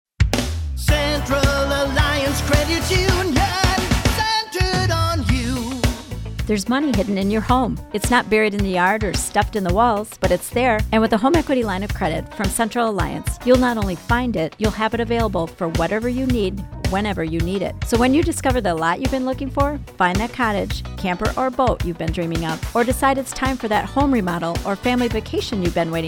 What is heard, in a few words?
Radio; centralallcreditunion